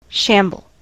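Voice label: "shamble", US English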